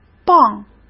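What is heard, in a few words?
"磅", Mandarin Chinese; hsk; chinese-flashcards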